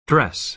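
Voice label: "dress", American English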